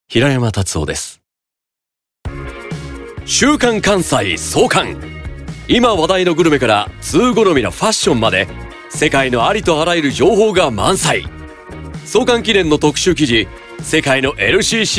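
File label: commercial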